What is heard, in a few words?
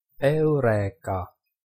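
"eureka", Greek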